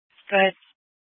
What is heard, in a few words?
Mesa PD Dispatch; Fiesta